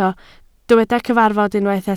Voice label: "cyfarfod", Welsh

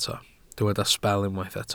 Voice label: "sbel" Welsh

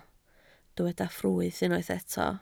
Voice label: "ffrwyth", Welsh

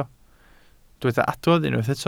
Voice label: "adrodd", Welsh

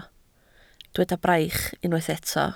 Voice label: "braich", Welsh

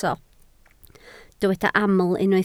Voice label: "aml", Welsh

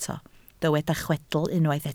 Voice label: "chwedl", Welsh

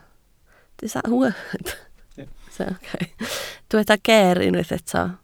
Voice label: "geer" Welsh

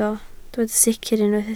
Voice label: "sicr", Welsh

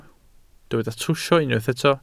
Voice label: "trwsio", Welsh

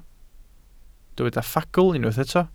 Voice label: "ffagl" Welsh

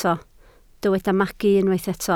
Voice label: "magu", Welsh

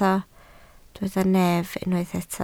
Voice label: "nef", Welsh

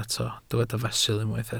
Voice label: "fesul", Welsh

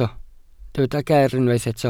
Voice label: "geer", Welsh

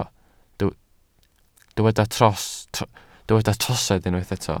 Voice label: "trosedd", Welsh

